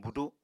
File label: "budu7", Apatani